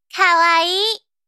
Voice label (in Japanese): kawaii